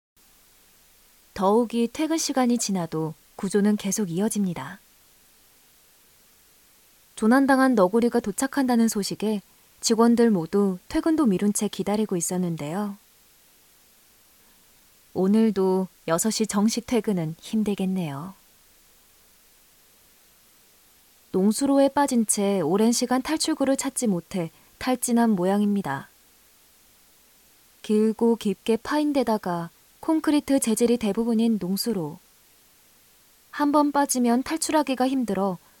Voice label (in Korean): 차분하고 안타까운